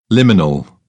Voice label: "liminal", British